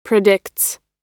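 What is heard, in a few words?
"predicts", US English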